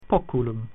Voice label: "cup", Latin